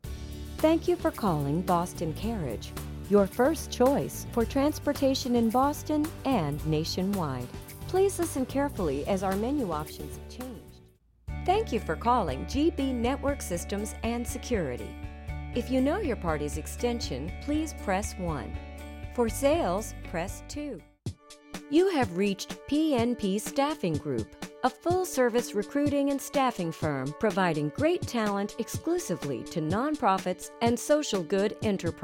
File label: IVR